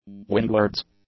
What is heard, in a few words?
"windwards", english; adjectives